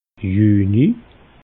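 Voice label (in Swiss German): "juni", Alsatian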